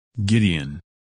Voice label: "Gideon", men names